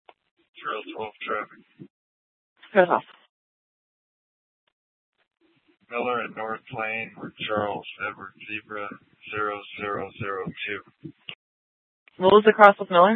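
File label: police dispatch, Scottsdale PD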